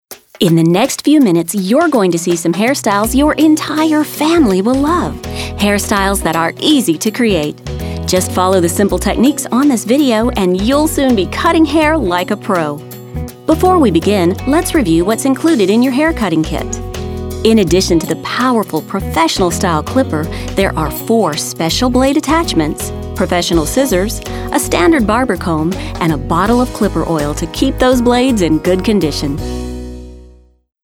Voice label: eLearning Voiceover